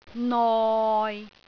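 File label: "noi6", Cantonese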